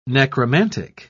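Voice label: "necromantic", British English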